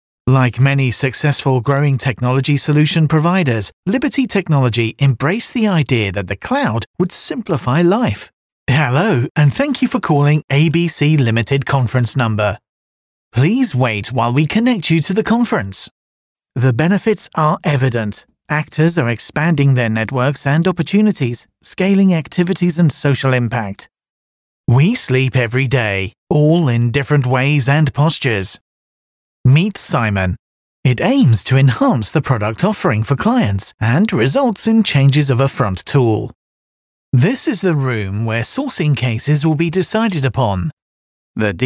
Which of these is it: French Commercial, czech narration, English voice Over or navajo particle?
English voice Over